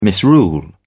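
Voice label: "misrule", British English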